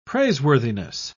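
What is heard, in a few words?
"praiseworthiness", English, British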